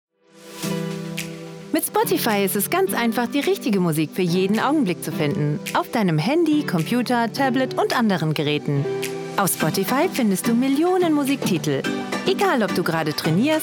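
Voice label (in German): Voice-over Werbung; Spotify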